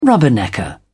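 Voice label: "rubbernecker", British English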